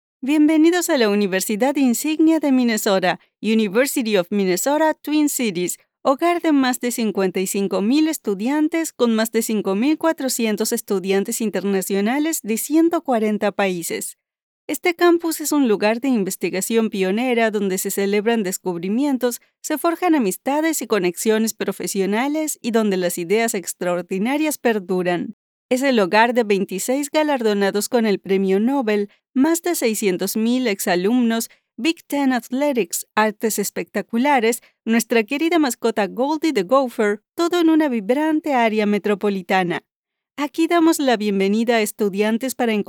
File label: virtualtour LatAm, voice-overs